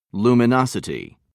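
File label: "luminosity", British English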